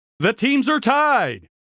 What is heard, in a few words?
team tied lead, announcer; warfork; score